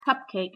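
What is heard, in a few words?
"cupcake", English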